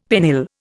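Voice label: "pennill", Indian English; Dictionary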